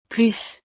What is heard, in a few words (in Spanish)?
"plus", frances